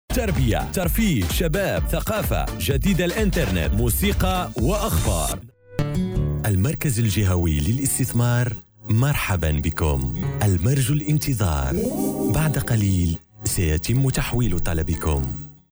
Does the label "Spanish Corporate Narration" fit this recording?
No